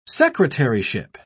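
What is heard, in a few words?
"secretaryship", British English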